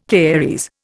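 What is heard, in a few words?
"caries", Indian English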